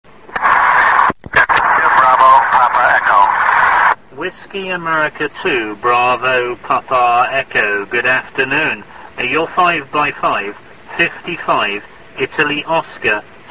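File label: amateur radio contact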